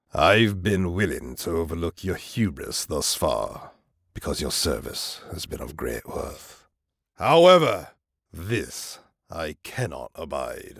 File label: British Accent